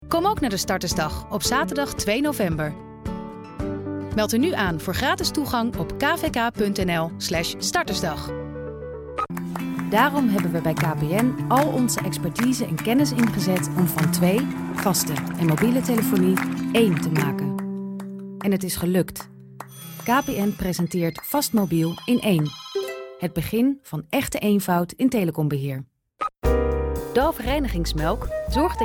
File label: F DUT